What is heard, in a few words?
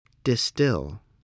"distill", spelling